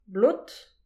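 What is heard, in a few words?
"blot da adober", Breton; petit precis de prononciation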